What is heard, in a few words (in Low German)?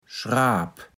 "Schraap", kaevels platt